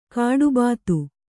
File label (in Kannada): "ಗಡಿಭತ್ತ", Kannada